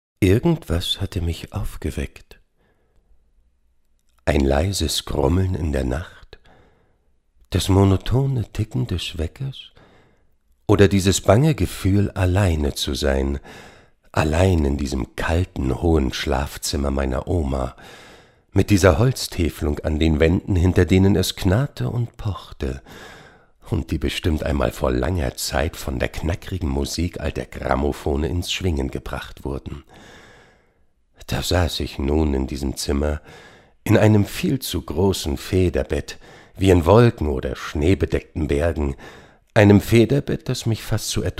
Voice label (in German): Lesung